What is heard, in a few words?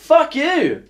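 fuck you Australian male; Voice; expletives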